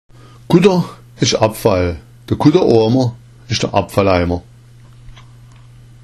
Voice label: "kutteroamer", schwaebisch; Kutter ist Abfall, der Kutter-Oamer ist der Abfalleimer

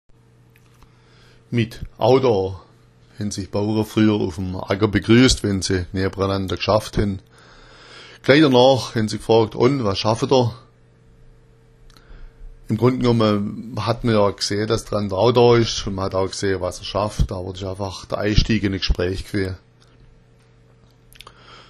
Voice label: audo, schwaebisch

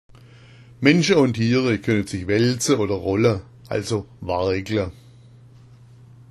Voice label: wargla, schwaebisch; Menschen und Tiere können sich wälzen oder rollen, also wargla.

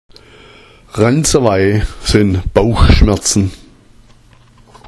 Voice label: "ranzawai", schwaebisch; Ranzawai sind Bauchschmerzen

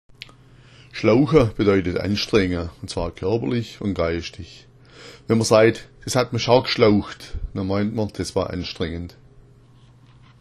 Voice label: schlaucha, schwaebisch; schlaucha bedeutet anstrengen; des hat mi schao gschlaucht = das war anstrengend.

